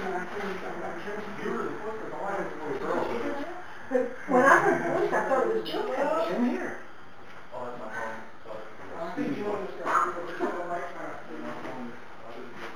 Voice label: boys voice hello